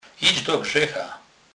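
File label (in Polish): "grzech", Kurpie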